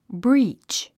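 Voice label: "breach", English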